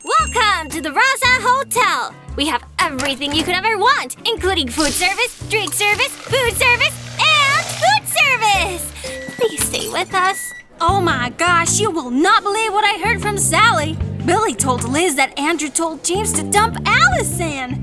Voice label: Character Voice